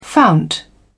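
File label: "fount", English, British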